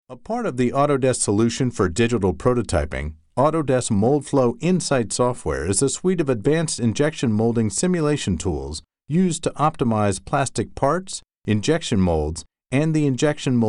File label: narration